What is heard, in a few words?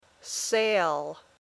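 "sail", English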